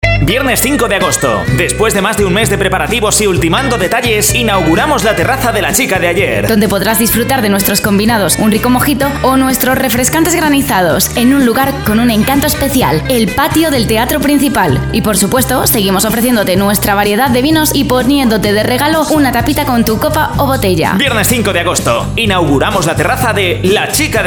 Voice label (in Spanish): HOMBRE